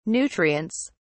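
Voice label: "nutrients", English, British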